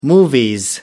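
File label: "movies", British English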